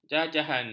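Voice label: "jajahan", Malay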